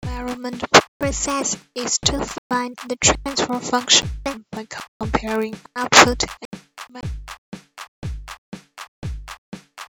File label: rap output